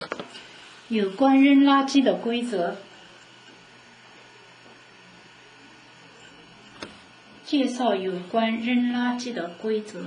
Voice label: gomidasi chinese